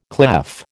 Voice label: "clef", American

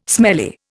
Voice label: "smelly", Indian

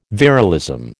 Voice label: "virilism", American

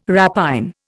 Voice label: "rapine", Indian

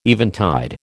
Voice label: "eventide", American

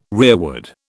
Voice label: "rearward", British

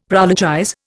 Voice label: "prologize", Indian; Dictionary

